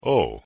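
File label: "oh", English pronunciation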